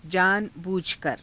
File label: jaana buujhakara, Hindi